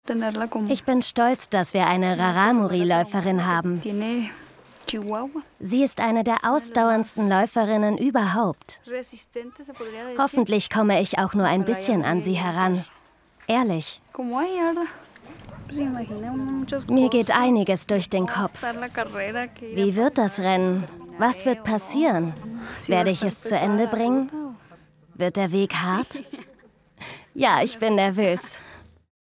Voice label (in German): Voiceover Weltspiegelreportage; SWR